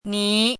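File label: "ní", Chinese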